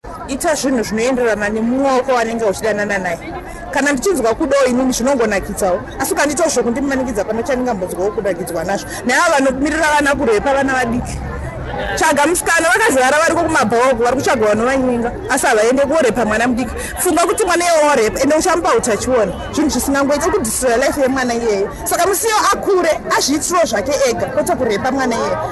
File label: march vox pop; code red